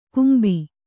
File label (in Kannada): "ಕುಂಬಿ", Kannada